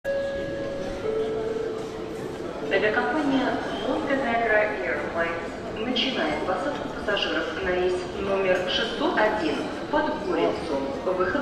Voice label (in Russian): objavlenie o posadke